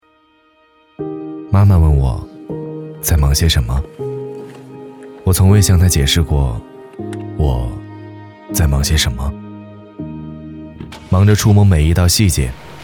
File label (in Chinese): 男, 走心独白; 十分忙碌